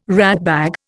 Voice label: "ratbag", Indian